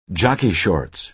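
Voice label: "jockey shorts", American English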